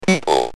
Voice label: Speak & Spell UK, "People"